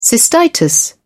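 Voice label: "cystitis", British English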